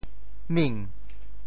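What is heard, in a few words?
"ming2", Teochew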